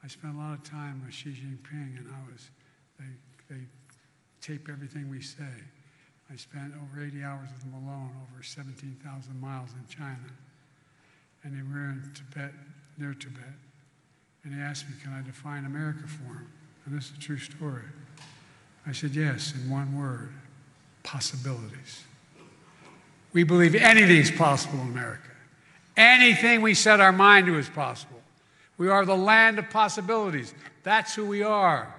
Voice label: GLITCHING AND YELLING; PRESIDENT BIDEN